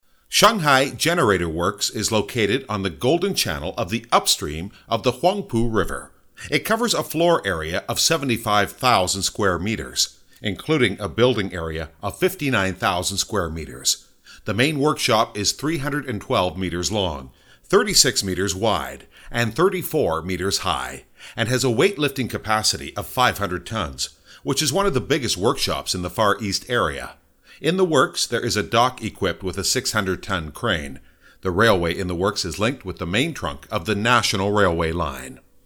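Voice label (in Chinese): 外籍男英_外语_美式英语_试音_成熟; 鑫华试音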